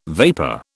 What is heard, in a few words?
"vapor", British